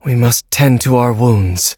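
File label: victory, English; cookie run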